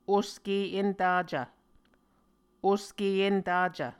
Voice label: "oske entacv", Muscogee